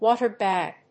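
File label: "water bag", English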